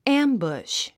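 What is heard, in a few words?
"ambush", English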